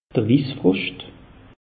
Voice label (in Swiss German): "wiss froscht", alsace; meteo